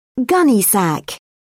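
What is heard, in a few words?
"gunnysack", British English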